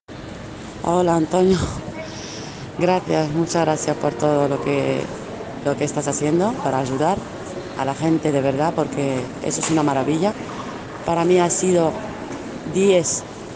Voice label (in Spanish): TESTIMONIO